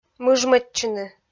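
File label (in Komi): "мыжмӧдчыны", Komi, female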